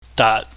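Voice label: "daat", Cantonese, low level tone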